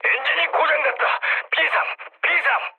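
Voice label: Korean damaged engine mood low, speech; War Thunder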